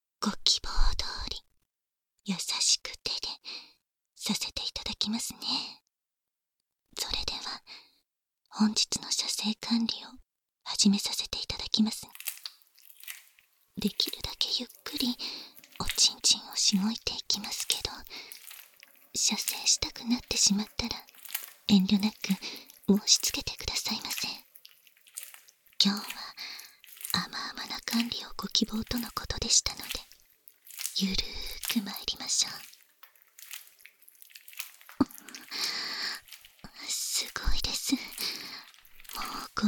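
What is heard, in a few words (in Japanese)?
囁き 手コキ バイノーラルマイク収録 効果音あり大きめ; 1-1 囁き手コキ（イージー）; バイノーラル編集; 新効果音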